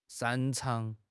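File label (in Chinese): "擅长", Chinese; HSK6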